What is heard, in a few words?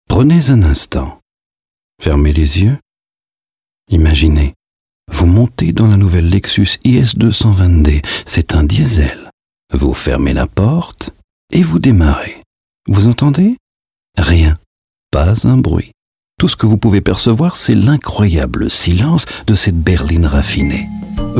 Radio Soft